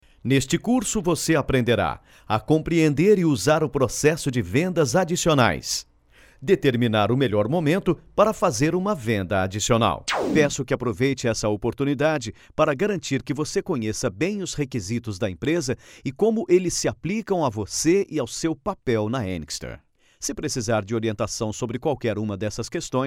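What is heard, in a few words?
Brazilian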